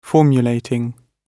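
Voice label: "formulating", British English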